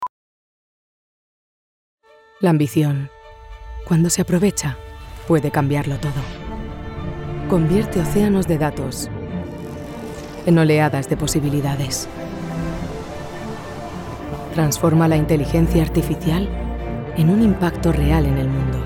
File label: spanish, spanish voice actors; Des voix off féminines européennes en espagnol à votre disposition !